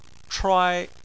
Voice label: "chuai1", chinese

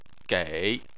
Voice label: "gei3", Chinese

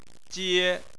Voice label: "jie1", Chinese

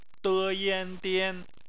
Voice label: "dian", Chinese; phonetics; bpmf

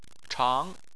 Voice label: "chang2", chinese

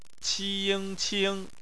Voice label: "qing", Chinese; phonetics; bpmf

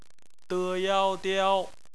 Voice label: "diao", Chinese; BPMF spelling; phonetics

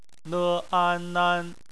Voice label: "nan", Chinese; phonetics

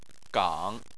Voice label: "gang3", Chinese

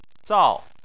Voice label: "zao4", Chinese

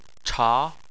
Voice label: "cha2", chinese

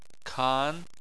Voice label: "kan2", Chinese

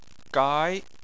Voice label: "gai2", Chinese

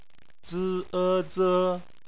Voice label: "ze", Chinese

